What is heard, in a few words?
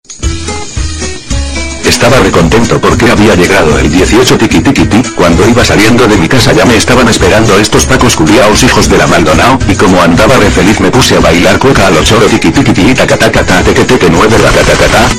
spanish ad; cursed; meme